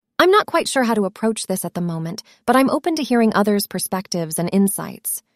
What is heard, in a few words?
synthesized audio